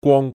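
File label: "gwong1", Cantonese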